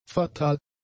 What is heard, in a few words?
"fatal", German; adjectives